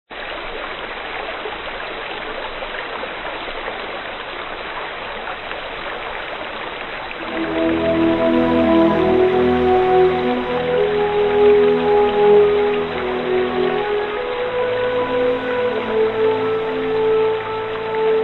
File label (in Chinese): 女,纪录片; 非洲